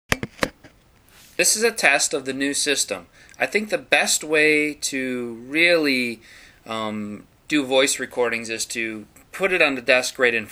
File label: Test of Voice Recorder